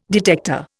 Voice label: "detector", Indian